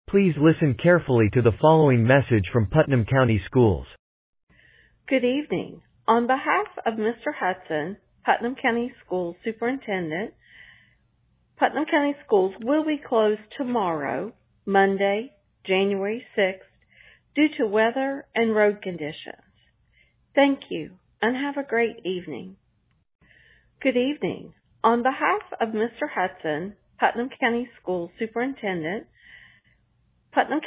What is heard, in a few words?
Voicemail